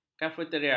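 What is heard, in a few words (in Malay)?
"kafeteria", Malay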